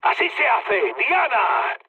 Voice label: bomb success mood med; War Thunder

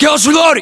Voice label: US inform reloading, battlechatter, english

